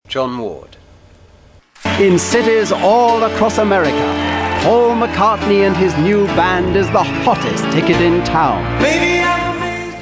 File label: Commercial, Male voices